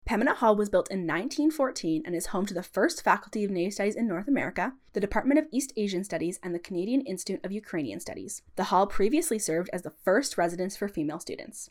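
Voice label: Pembina Hall English; NSO Tour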